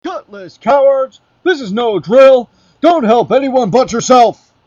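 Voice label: Drill Sergeant; BW2; Maximal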